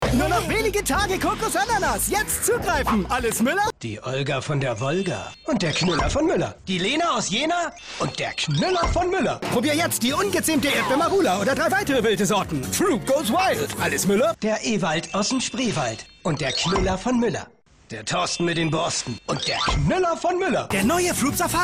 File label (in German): joghurt off reklame; LOFT VOICES